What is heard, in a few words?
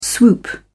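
"swoop", British English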